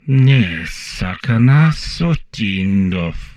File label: humanoid portrait male; Stellaris; humanoids